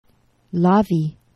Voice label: "lavi", Esperanto; geneva

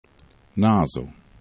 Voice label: "nazo", esperanto

